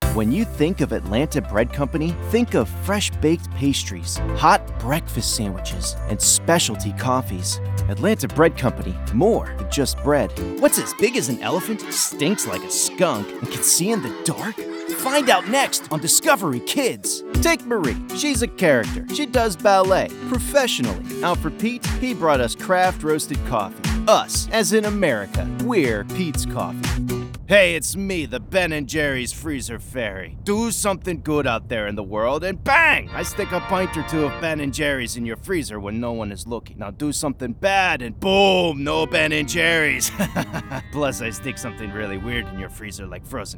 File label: Commercial